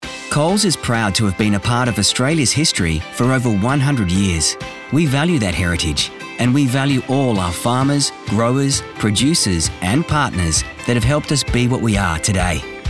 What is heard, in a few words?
Corporate